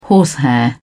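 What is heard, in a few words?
"horsehair", British English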